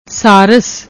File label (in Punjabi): "saaras", Punjabi; ਸਾਰਸ